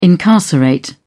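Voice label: "incarcerate", British English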